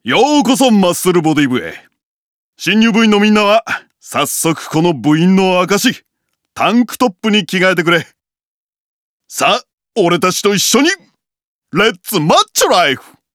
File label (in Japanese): セリフ 20 40代 マッスルボディ部へようこそ